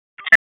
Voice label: Police Tac, p25; Raleigh; Downtown District; wake

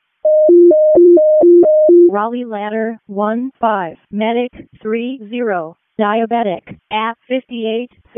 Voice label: WC EMS ALERT, p25; wake p25

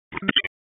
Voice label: Raleigh Police Downtown District Tac, p25; wake

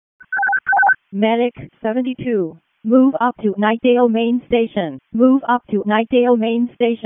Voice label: WC EMS ALERT, p25; wake

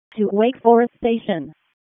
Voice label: EMS ALERT, p25; wake

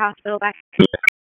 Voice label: Police Spec Ops Tac 4, p25; Raleigh; Tac 7; wake

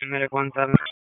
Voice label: Police Spec Ops Tac 4, p25; Raleigh; wake

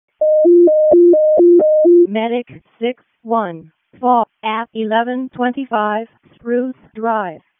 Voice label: WC EMS ALERT, p25; wake

